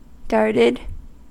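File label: "darted", US English; pronunciations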